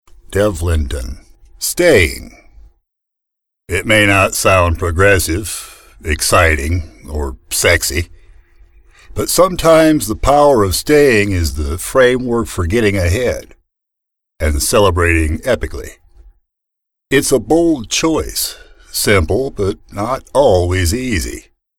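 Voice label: male English American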